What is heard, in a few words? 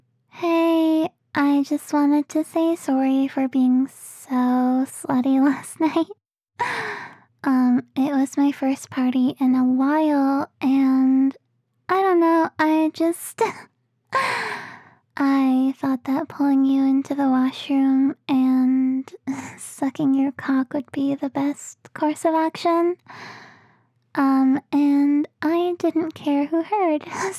voicemail